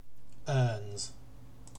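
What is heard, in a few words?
"earns", British English; pronunciation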